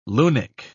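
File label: "lunik", British English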